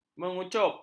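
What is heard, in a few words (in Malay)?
"mengucup", Malay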